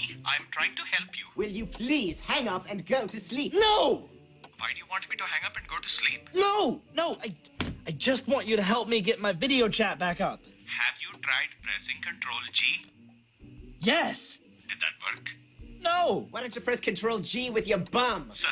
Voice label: As a comic computer tech in a TV show frustrating the lead character via phone; Zoey 101